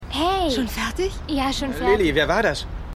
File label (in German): Maedchen; Keine Gnade Fuer Dad